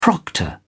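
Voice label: "proctor", English, British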